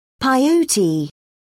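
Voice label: "peyote", English, British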